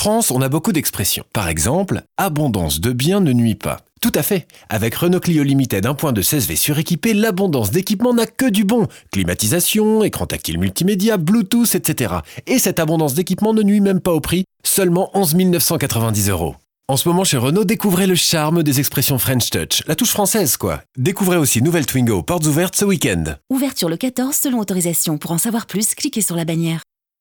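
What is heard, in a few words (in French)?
spot, spots radio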